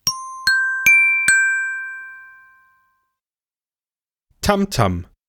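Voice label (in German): "Tamtam", German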